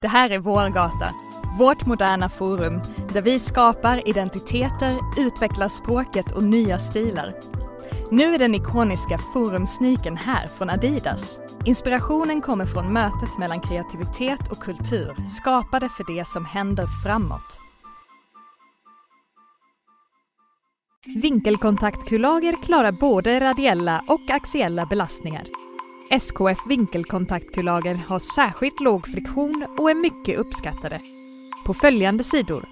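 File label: Swedish, General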